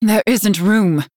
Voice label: Female Night Elf ERROR FULL MINIONS